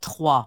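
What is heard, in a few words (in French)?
"trois", French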